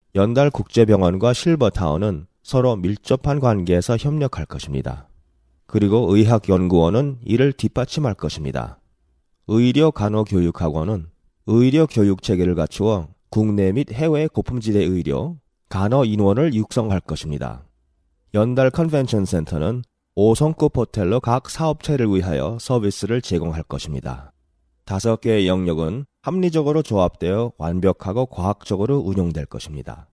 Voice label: Korean